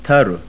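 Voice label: voiceless aspirated dental, sindhi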